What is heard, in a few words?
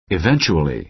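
"eventually", American English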